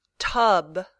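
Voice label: "tub", English word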